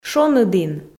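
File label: "шоныдін", Komi, female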